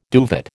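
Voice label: "duvet", American English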